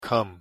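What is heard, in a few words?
"come", English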